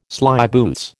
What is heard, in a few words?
"slyboots", American